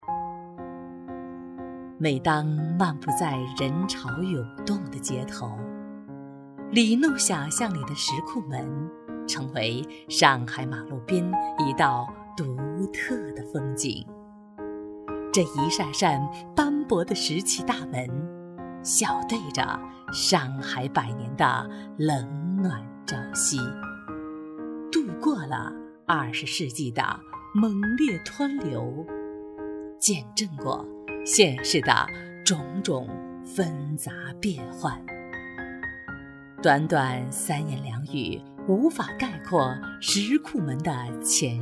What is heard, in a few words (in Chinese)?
女 旅游景点解说 自然述说 上海石库门